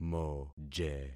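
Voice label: "moje", concatenated syllables; Affixing experiment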